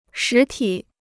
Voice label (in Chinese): "实体", Chinese